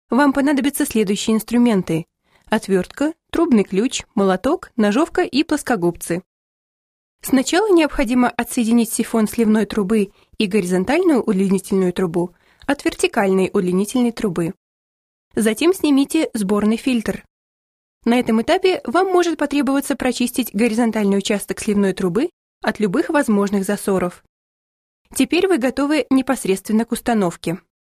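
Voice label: Russian, female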